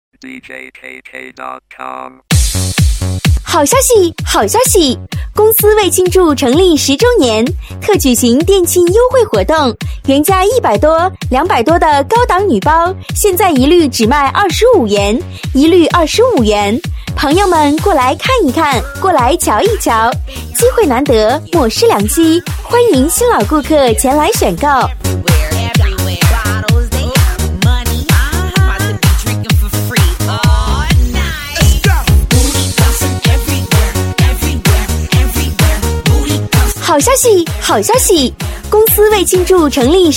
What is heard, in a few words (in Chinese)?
女72 促销广告 好消息 活泼甜美店庆, 国语女声配音 年轻